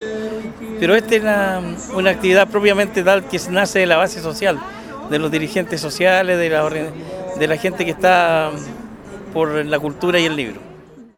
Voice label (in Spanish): cuña; libro puente alto; organizaciones de puente alto celebran el dia del libro